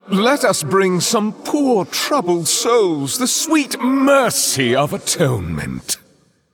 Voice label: Male Eternal One Picked